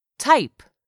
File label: "type", English word; 3000 words